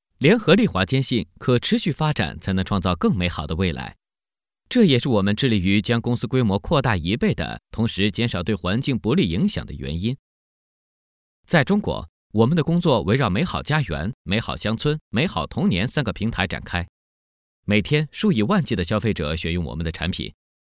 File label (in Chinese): 国语男声配音, 译制片风格; 联合利华; 全能录音